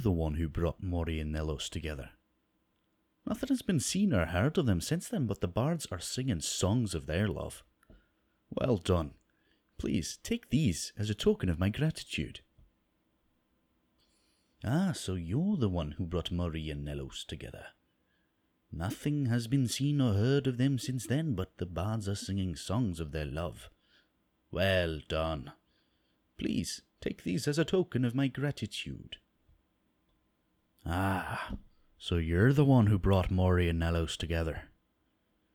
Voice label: breton, voice auditions